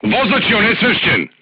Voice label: voice message driver contused, crew tanks serbian

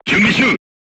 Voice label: voice message reloading, crew tanks japanese

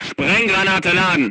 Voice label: voice message load HE, crew tanks german

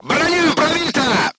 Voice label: voice message player tank hit, crew tanks russian

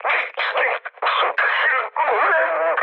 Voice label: damaged pilot, speech hun; War Thunder

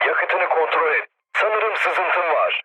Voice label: tank damaged, mood low, speech tur; War Thunder

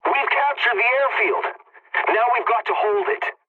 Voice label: pilot capture ground ally, english; War Thunder

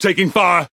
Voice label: Speech Pilots Torpedo Under Attack, EN GB; World Of Warships

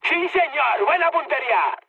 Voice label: bomb success, mood high, speech; War Thunder

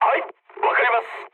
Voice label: jp chat; War Thunder

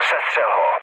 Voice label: attack position, speech cze, mood low; War Thunder

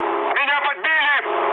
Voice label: aircraft damaged, mood med, speech ru

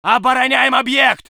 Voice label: voice sov infantry

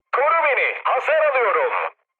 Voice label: aircraft damaged mood high, speech; War Thunder

